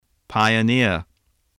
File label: "pioneer", voice, English